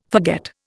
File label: "forget", Indian